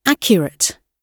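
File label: "accurate", British English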